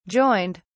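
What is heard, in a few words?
"joined", British English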